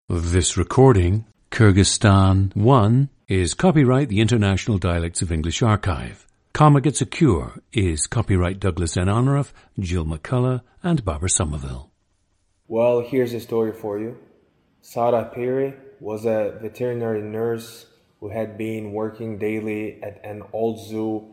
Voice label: Kyrgyzstan, International Dialects of English; Kyrgyzstan 1; a 22-year-old man from Bishkek, Kyrgyzstan.

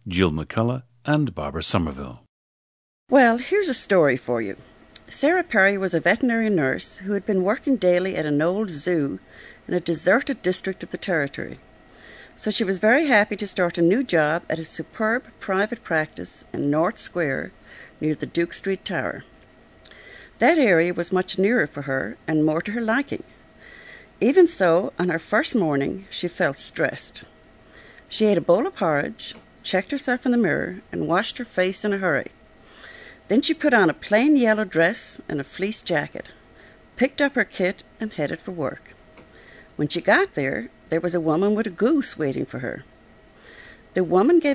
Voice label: ireland, dialects

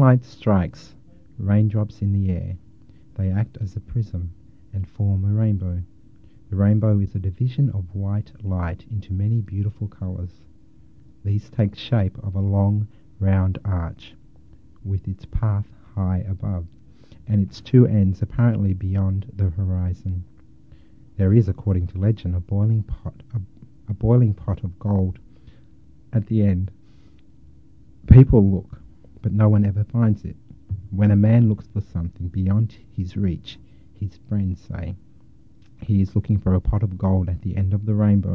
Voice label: australia

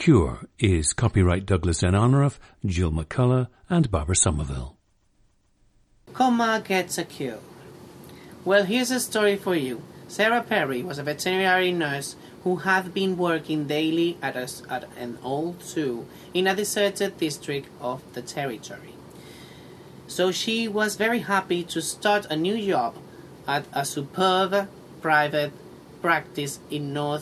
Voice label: spain

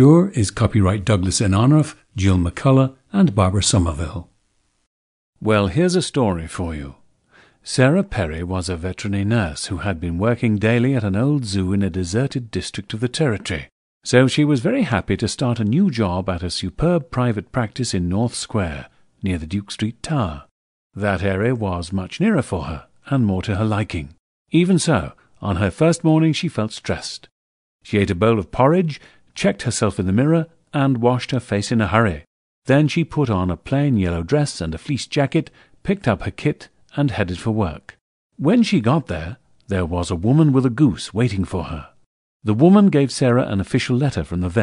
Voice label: Received Pronunciation

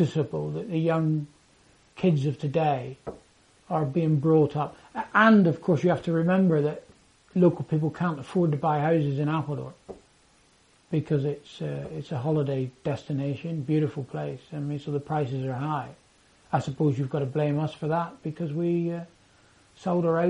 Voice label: Devon history, dialects

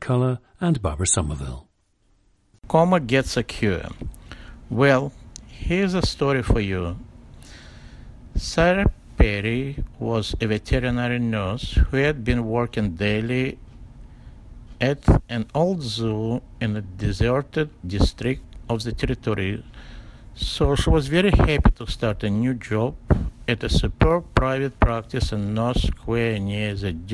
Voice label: belarus, dialects

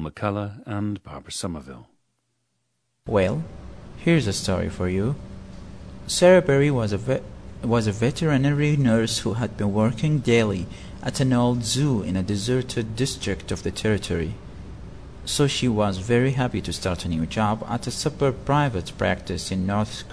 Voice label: saudi arabia, dialects

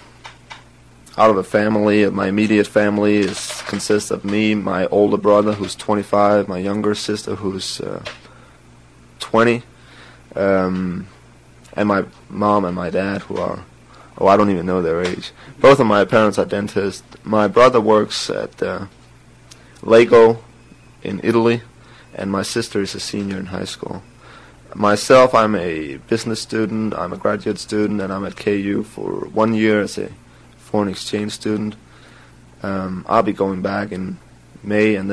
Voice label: denmark, dialects

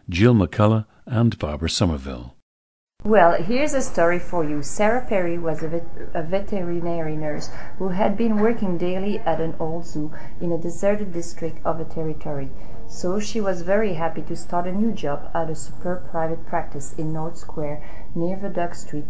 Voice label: belgium, dialects

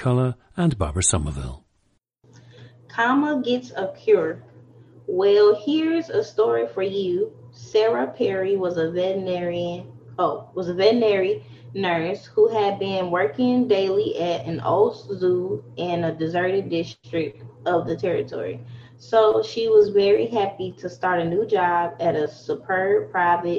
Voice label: missouri, dialects

